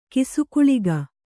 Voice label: "ಕಿಸುಗುಳಿಗ", kannada

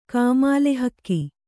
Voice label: "ಕಾಮಾಲೆಹಕ್ಕಿ", kannada

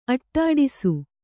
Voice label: "ಅಡ್ಡೈಸು", Kannada

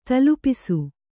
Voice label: "ತಲೆಪೂಸು", Kannada

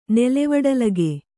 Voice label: "ನೆಲೆವಡಲಗೆ", Kannada

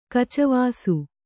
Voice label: "ಕಚವಾಸು", Kannada

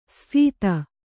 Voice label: "ಸ್ಫೀತ", Kannada

